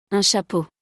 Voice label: "un chapeau", francais; vocabulaire; le lexique de l'habillement

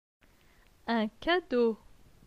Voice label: "un cadeau", francais; phonetique; les consonnes

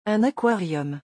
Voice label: "un aquarium", francais; vocabulaire; le lexique des vacances

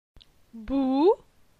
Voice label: "Bou", phonetique; la syllabe ou

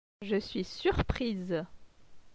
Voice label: "je suis surprise", francais

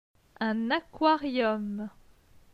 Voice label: "un aquarium", francais; phonetique; les voyelles

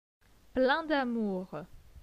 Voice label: "plein damour", francais

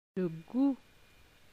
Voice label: "le gout", francais

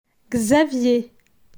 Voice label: "Xavier", phonetique; la graphie x

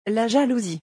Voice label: "la jalousie", francais

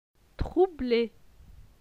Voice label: "trouble", francais

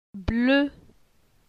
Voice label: "Ble", phonetique; la syllabe e

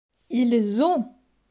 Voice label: "ils ont", francais

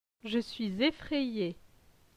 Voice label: "je suis effraye", francais

